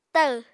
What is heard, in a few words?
"tahw", Apatani